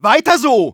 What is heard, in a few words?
cheers, de; Medic